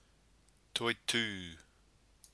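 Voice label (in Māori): "toitu", Māori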